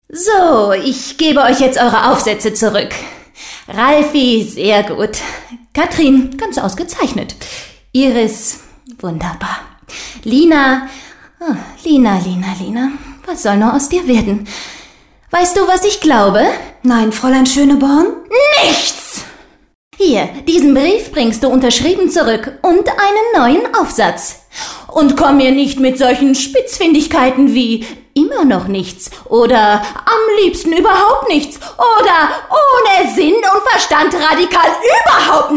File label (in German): Hoerspiel Lehrerin PARODIE